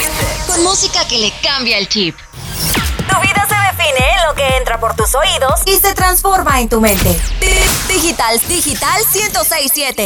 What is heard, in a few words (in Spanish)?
imagen, voces